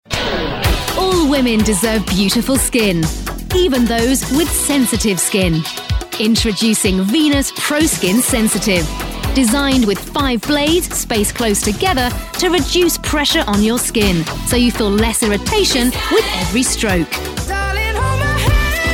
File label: commercials